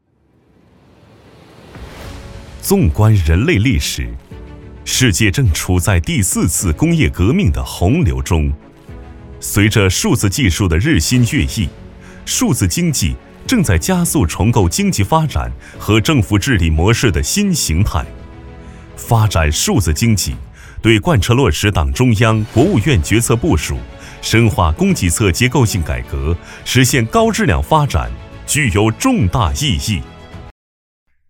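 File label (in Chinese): 男【宣传片科技大气】; 陕西数字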